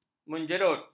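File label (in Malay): "menjerut", Malay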